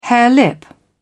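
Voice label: "harelip", British English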